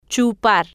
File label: "chupar", spanish